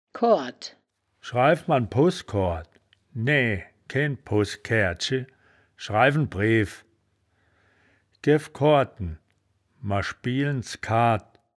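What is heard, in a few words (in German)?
"koard", wittlicher woerterbuch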